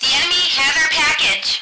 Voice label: radio female; action-quake2